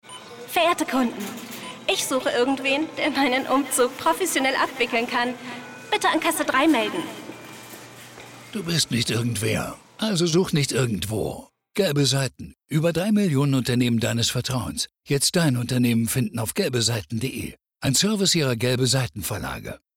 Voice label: Werbung Funk; Gelbe Seiten

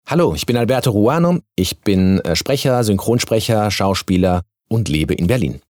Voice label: Vorstellung DE

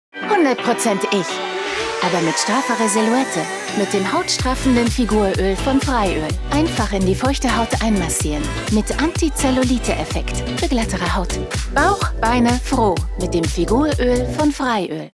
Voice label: Werbespot; Freiöl

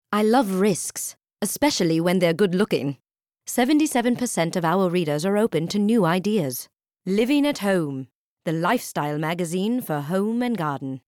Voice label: us englisch

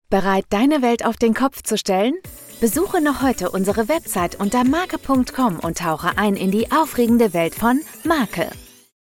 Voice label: Werbung dynamisch

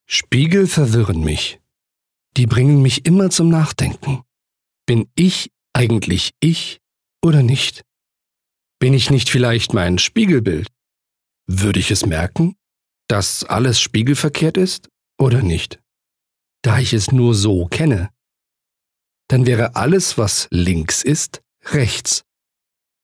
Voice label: Innerer Monolog; LOFT VOICES

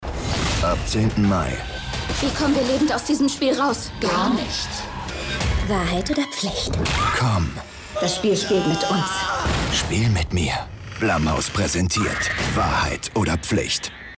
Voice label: Trailer Off Sprecher; Wahrheit oder Pflicht

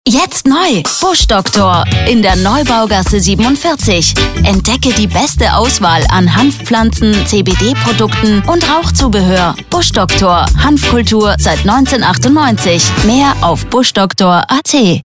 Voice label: Spot; Bushdoctor

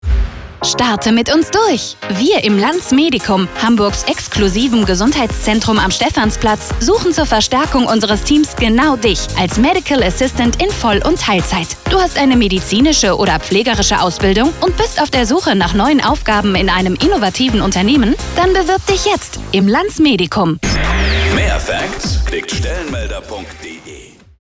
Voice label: Funk Spot; Lans Medicum; LOFT VOICES

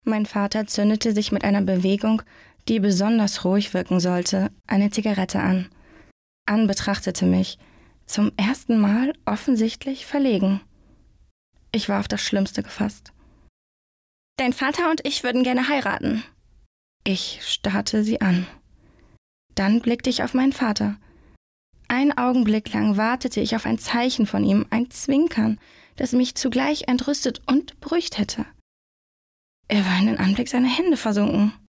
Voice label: Hörbuch; Mein Vater

